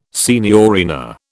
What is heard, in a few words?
"signorina", British